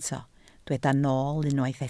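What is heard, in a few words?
"nool" Welsh, spoken word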